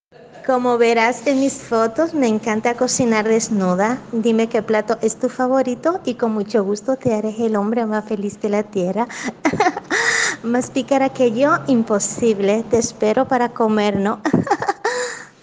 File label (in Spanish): Locución